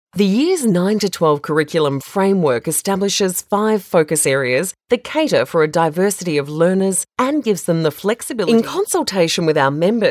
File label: Corporate